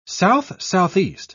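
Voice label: "south-southeast", English, British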